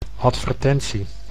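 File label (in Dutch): "advertentie", Dutch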